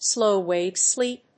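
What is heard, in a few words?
"slow-wave sleep", English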